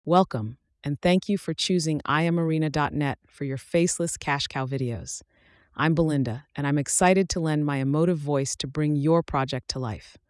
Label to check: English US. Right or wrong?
Right